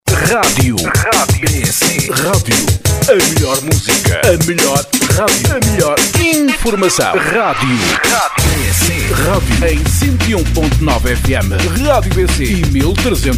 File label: jingle portuguese; RadioBC